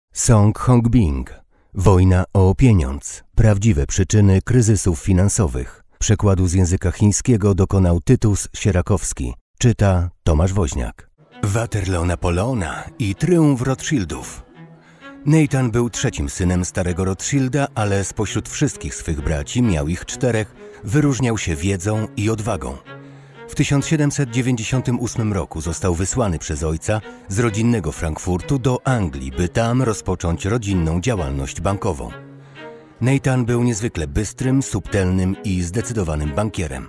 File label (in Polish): audiobook; Wojna o pieniądz